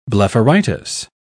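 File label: "blepharitis", British English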